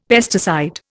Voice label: "pesticide", Indian; Dictionary

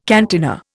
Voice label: "cantina", Indian

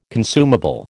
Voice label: "consumable", American

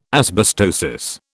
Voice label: "asbestosis", British

